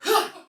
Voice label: Attack, Soldier Female, Battle; Dialog; Barks; Sinecure